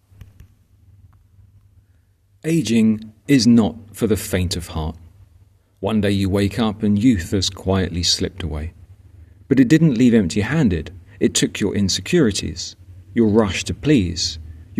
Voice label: voiceover